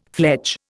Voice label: "fledge", Indian

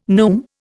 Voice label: "neume", Indian

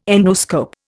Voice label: "endoscope", Indian

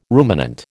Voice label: "ruminant", American

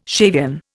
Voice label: "Shavian", Indian

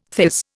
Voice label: "theirs", Indian

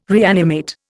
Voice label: "reanimate", Indian

